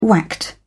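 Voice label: "whacked", British English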